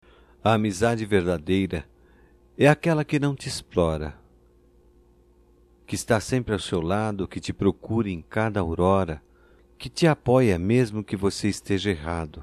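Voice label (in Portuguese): DIA DO AMIGO, masculino; Telemensagens; Só Voz; DATAS COMEMORATIVAS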